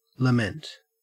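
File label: "lament", Australian English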